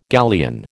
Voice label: "galleon", American